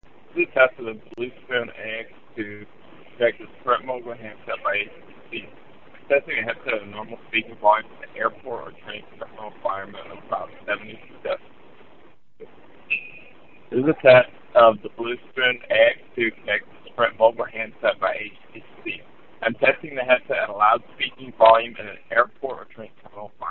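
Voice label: airport 72db, Bluetooth headset; BlueSpoonAX2; Mogul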